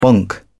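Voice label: "bonk", British English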